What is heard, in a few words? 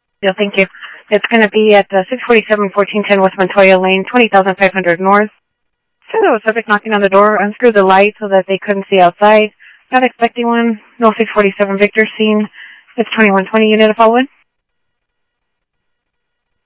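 Phoenix police department, scanner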